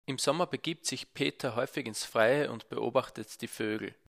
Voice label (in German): mikro pop nahe seitlich ohne filter